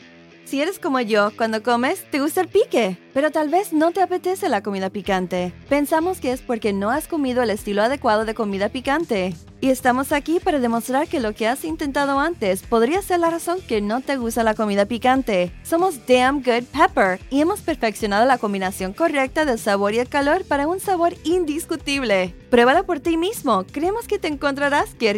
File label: commercial sp